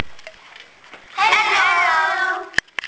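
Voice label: "hello", English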